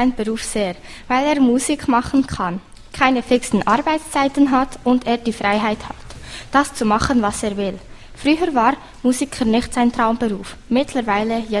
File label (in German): Interview indirekt Musikschule Schlagzeug; Aarberg